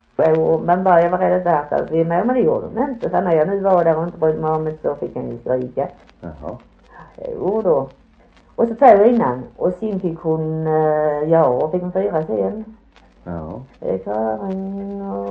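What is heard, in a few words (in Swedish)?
Harplinge Halland, dialekter; Folkminnen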